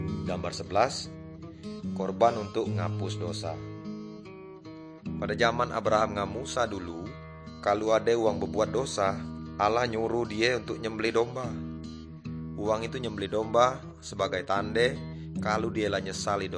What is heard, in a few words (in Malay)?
good news melayu tanjung raja